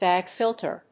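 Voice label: "BAG-FILTER", English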